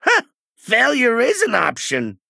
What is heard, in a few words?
Male Goblin Oops